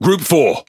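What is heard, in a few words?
Pirate Speech Pilots Torpedo, English pirate; World Of Warships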